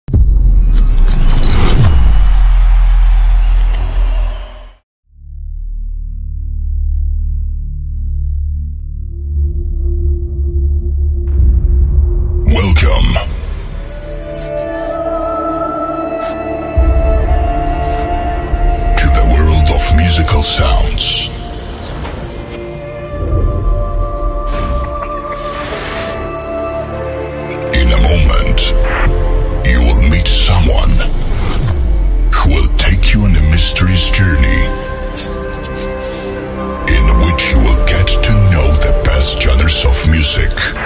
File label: DJ INTRO Voice Actor; SUN